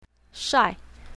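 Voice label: "shai4", Chinese pinyin, south, female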